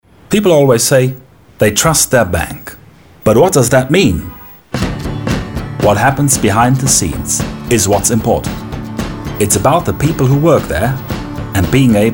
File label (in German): englisch